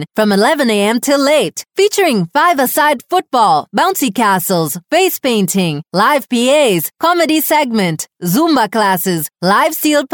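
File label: English Caribbean female advert; accent of the caribbean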